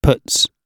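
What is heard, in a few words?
"puts", British English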